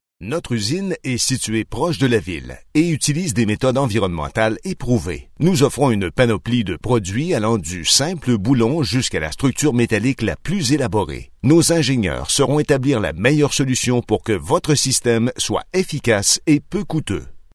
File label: french canadian corporate videos